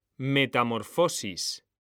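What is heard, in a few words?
"metamorfosis", Spanish; mekanta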